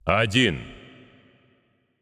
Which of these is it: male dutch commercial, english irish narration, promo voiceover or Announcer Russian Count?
Announcer Russian Count